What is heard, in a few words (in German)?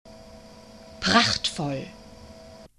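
"prachtvoll", German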